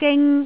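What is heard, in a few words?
"ging", Cantonese, tone 1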